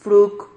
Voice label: "fruc", Silabas

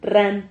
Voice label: "rran", silabas

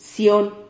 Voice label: "cion", Silabas

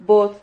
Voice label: "vod", Silabas

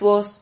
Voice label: "tuos", Silabas

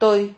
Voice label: "toy", Silabas

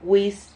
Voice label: "huis", Silabas

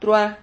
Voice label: "truha", Silabas

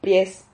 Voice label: "pries", Silabas

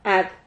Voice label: "had", Silabas

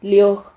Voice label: "lioj", Silabas

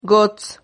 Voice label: "gots", Silabas

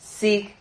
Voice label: "sig", silabas

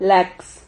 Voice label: "lax", Silabas

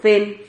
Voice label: "fen", Silabas

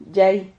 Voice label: "llay", Silabas

